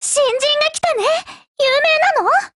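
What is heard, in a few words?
build over, voice, Japanese; GF Alarm; C93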